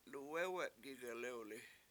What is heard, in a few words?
luwEwe7 gig1lewli7 they sit around, Washo